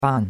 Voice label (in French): "ban4", chinois; Cours de Chinois